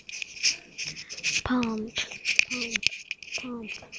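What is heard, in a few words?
"pump", spoken word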